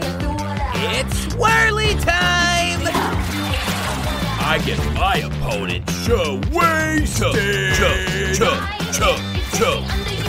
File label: Animation Characters